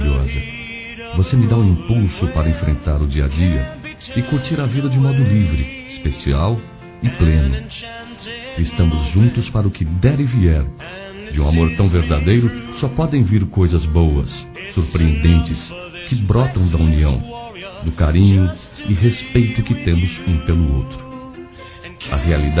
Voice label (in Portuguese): Feliz ao seu Lado Masculino